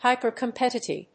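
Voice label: "hypercompetitive", English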